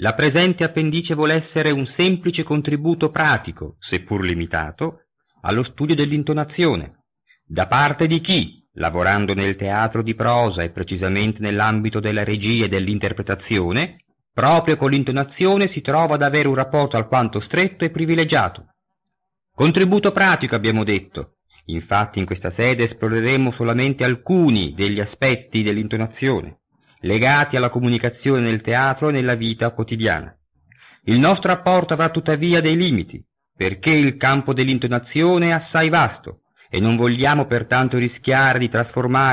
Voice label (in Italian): lettura